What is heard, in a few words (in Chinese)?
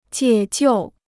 "解救", Chinese